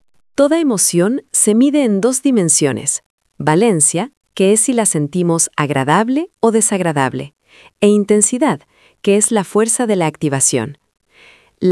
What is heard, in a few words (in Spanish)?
locucion